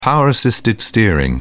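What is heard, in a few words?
"power-assisted steering", British English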